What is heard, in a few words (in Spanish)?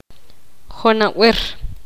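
el año pasado, poqomam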